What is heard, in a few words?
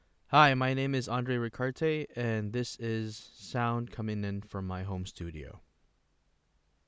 Home Studio Test